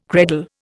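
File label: "credal", Indian English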